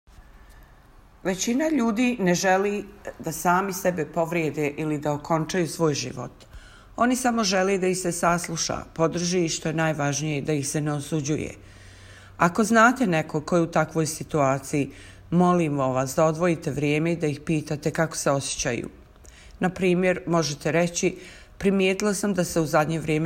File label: Bosnian; mental health services